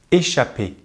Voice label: "échapper", French; easypronunciation; Consonantes en francés

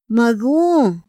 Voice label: "ma gu", Otomí; INPI

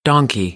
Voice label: "donkey", American English; ɑː - ɒ

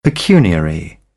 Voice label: "pecuniary", British; English

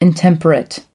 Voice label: "intemperate", American; ɚ - əɹ

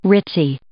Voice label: "ritzy", English

